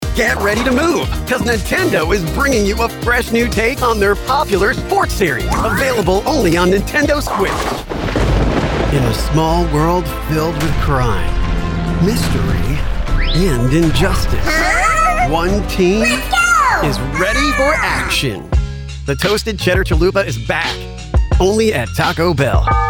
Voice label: Promo